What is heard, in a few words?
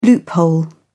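"loophole", British English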